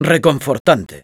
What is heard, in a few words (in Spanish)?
Spy cheers, es